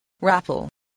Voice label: "raffle", english